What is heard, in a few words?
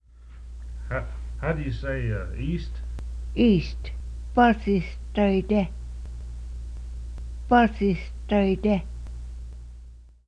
"east", quapaw words